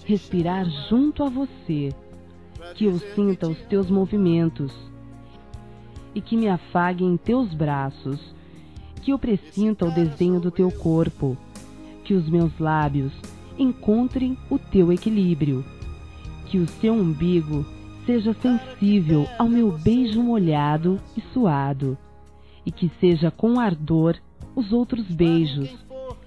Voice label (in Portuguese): Românticas, fem; Telemensagens 2017